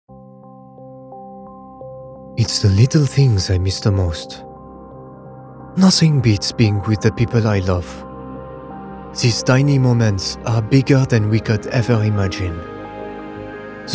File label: Emotional Manifesto Narrator